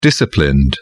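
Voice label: "disciplined", English, British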